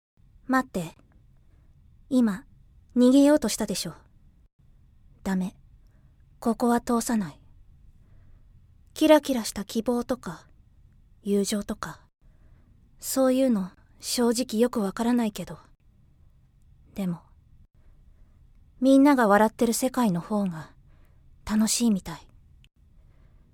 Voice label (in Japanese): 寡黙な少女